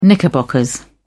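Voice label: "knickerbockers", English, British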